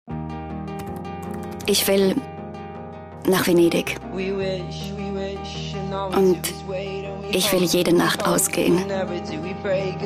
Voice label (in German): Frau OFF